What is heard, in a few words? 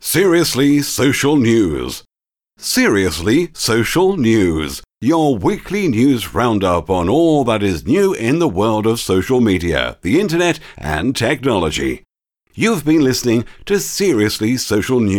Voice Over; Seriously Social